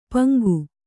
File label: "ಪಾಂಗು", Kannada